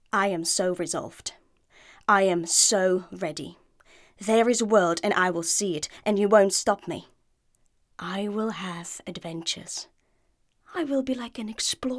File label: female slovak english with undetectable accent